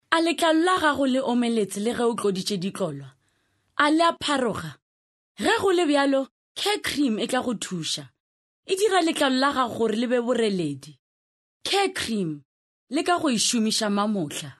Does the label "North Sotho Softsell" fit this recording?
Yes